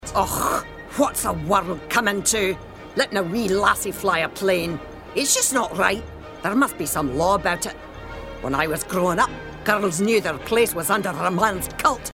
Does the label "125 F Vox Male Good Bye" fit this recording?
No